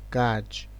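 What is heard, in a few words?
"gag", Nigerian Pidgin, male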